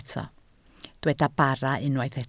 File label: "bara", Welsh